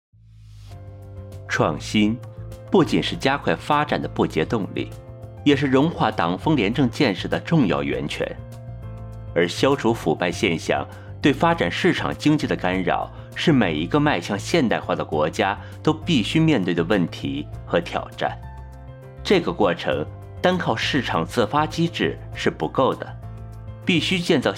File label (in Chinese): 党风廉政宣传